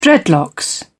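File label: "dreadlocks", British English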